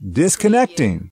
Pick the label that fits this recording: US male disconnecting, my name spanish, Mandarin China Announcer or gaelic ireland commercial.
US male disconnecting